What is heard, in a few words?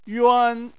"yuan1", Chinese